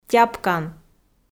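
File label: "тяпкан", Komi, female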